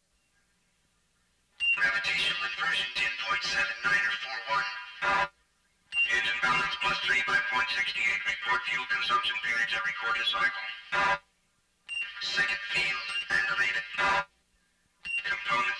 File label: Command Ship Chatter; Battle Beyond The Stars